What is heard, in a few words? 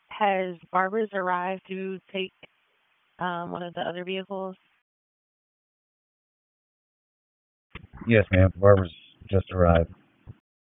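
Police Southwest District Dispatch; Raleigh Police Southwest District Disp; wake; p25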